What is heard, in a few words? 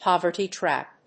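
"poverty trap", English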